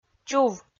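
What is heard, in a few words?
"чув" Komi, female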